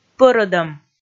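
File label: "пӧрӧдӧм", Komi, female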